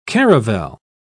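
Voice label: "caravel", English, British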